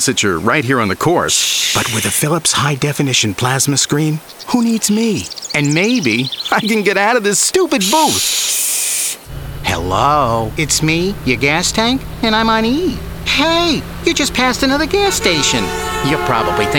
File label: Comm Demo